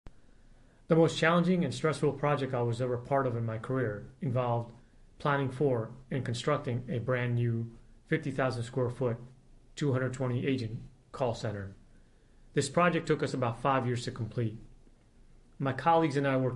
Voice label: voiceover